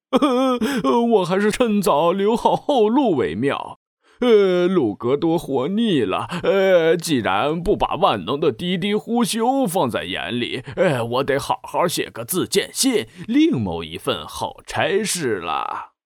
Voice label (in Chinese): 动画 胆小男